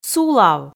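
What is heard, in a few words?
"сулав", Komi, female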